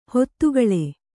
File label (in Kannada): "ಹಿತಗೊಳ್", kannada